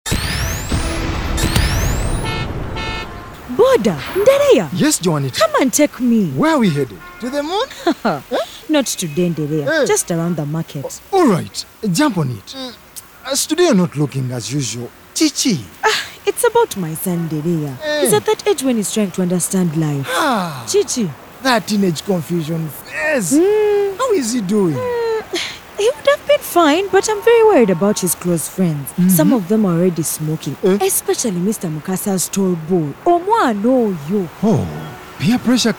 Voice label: Adolescent Health Taking Charge English; radio spots